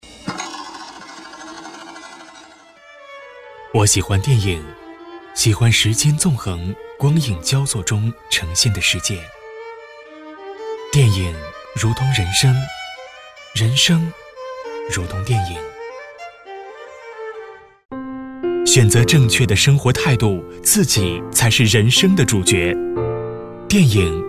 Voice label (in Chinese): 男 影城; 环银国际影城